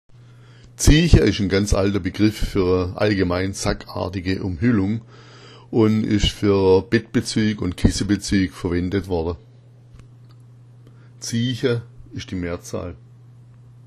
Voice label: "zieacha", schwaebisch

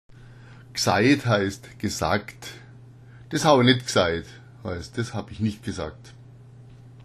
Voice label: gsait, schwaebisch; G'sait heißt gesagt

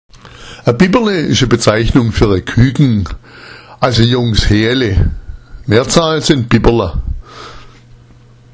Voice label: "bibberle", schwaebisch; Bibberle ist eine Bezeichnung für ein Küken (Jungtier eines Huhns), Mehrzahl: Bibberla

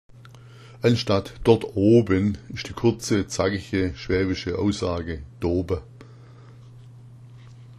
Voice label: doba, schwaebisch

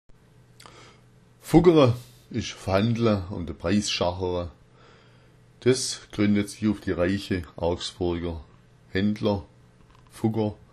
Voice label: fuggera, schwaebisch

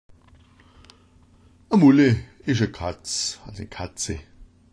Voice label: "mulle", schwaebisch

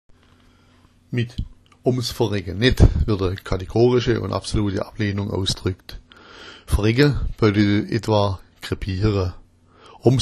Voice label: verregga, schwaebisch

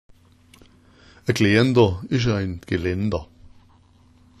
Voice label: "gleandr", schwaebisch

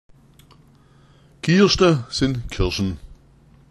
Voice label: "kirschta", schwaebisch

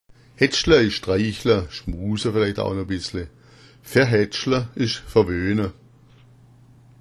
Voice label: haetschla, schwaebisch

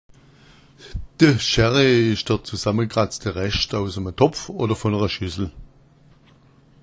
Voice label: scherre, schwaebisch

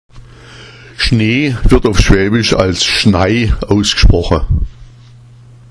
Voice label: "schnai", schwaebisch; Schnee wird auf schwäbisch als Schnai ausgesprochen

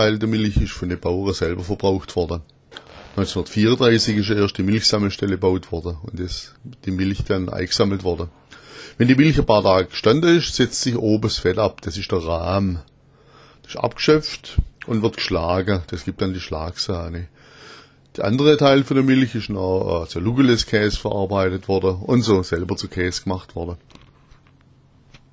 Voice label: "schlagrahm", schwaebisch; Schlagrahm wurde die Sahne genannt.

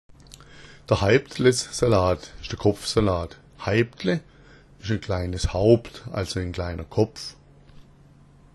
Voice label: haeptlessalat, schwaebisch

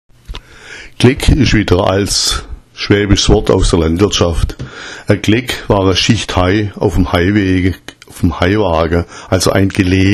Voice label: gleck, schwaebisch; A‘ Gleck war eine Schicht Heu auf dem Heuwagen = Gelege.

